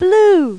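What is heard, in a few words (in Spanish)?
"azul", Spanish; Pipo-Aprende musica con Pipo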